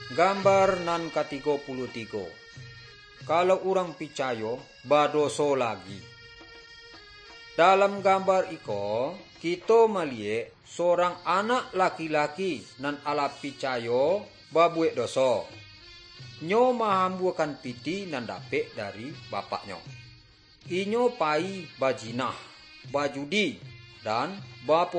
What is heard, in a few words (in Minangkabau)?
good news minangkabau; bible